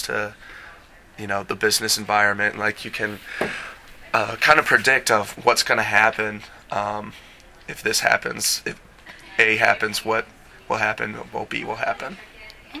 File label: interview with economics major